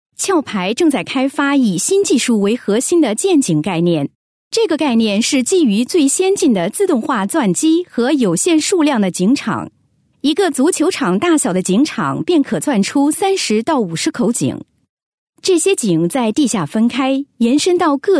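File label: Mandarin, female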